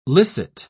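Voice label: "licit", British English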